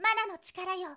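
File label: girl, "mananotikarayo"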